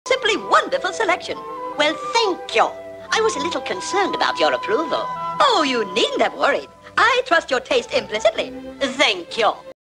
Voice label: old cartoon says thank you